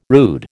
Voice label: "rude", American

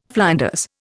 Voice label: "flinders", Indian

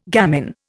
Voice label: "gamin", Indian

